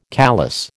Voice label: "callous", American